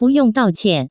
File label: buyong daoqian, Taiwan Mandarin